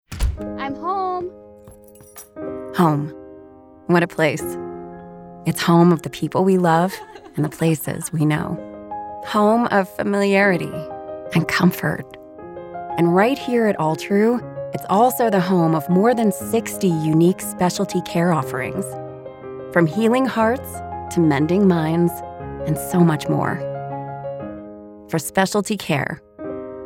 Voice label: Radio; Altru Home